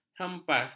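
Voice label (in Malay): "hempas", Malay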